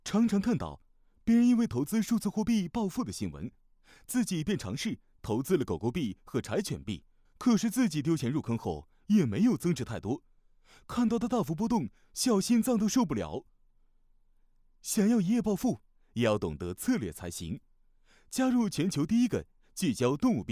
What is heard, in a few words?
Chinese, Male